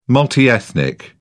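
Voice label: "multi-ethnic", English, British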